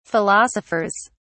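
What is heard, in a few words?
"philosophers", British English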